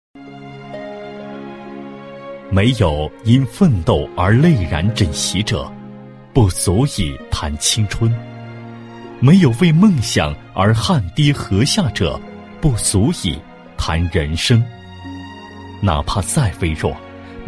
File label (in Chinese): 男 专题, 国语男声配音, 大气浑厚; 青春叙说